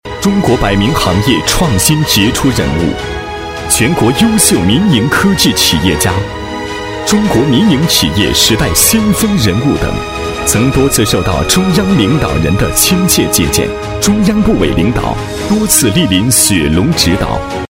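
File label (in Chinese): 男 人物颁奖【大气有力】; 厚重磁性 大气浑厚; 雪龙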